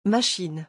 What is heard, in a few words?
"machine", French; pronunciation